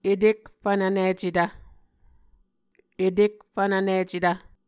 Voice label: "etekfvnvnicetv", Muscogee